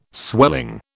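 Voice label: "swelling", British English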